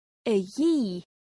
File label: Scots Gaelic; Phonetics